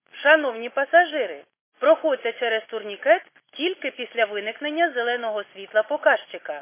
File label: khs st female, subway station